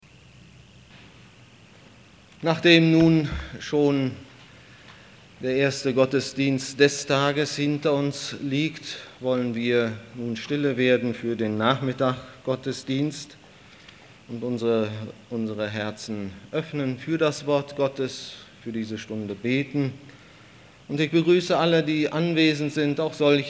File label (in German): Ansage; Dienet dem Herrn mit Freuden